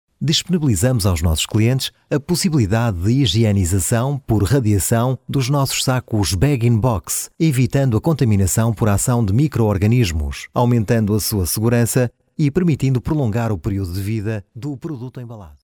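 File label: Portuguese, male, narration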